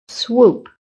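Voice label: "swoop", American English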